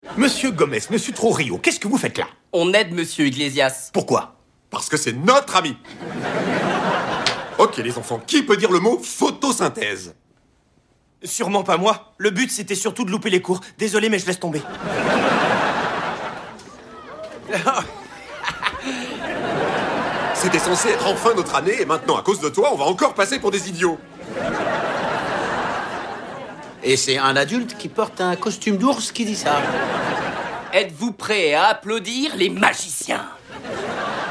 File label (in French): Doublage; Mr IGLESIAS